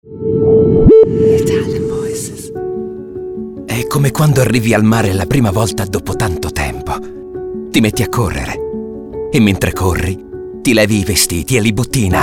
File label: ITALIAN VOICES, male voices; Naked Reel